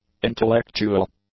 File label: "intellectual", English; adjectives